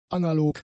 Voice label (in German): "analog", German; adjectives